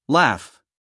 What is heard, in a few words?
"laugh", US English, male; everyone-can-use-english